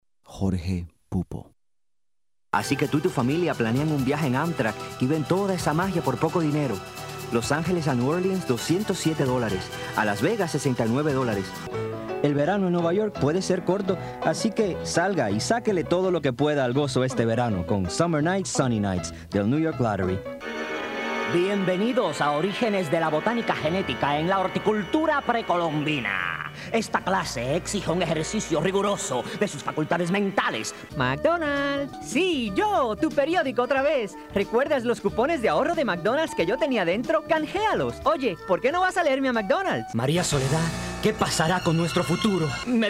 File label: languages spanish commercial